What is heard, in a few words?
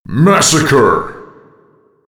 10 kills, announcer